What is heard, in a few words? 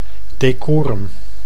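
"decorum", Dutch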